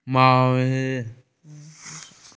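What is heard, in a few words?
"sweater", Mandarin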